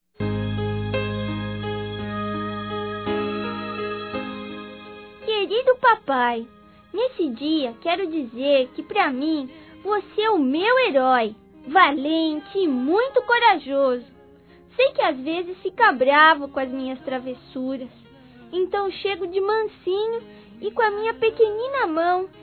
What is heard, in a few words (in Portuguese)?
Aniversário Pai Infantil Masculino; Telemensagens 2017; Aniversários